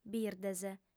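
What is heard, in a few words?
"birdes", female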